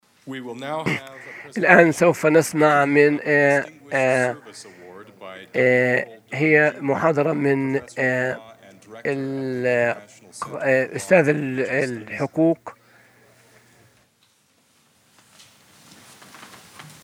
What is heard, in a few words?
Arabian; arabic; iclrs symposium 2009